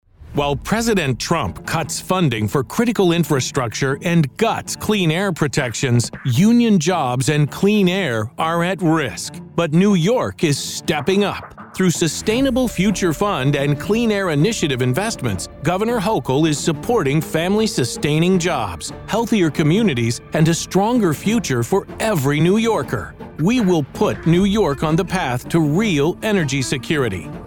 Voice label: Political Attack Ads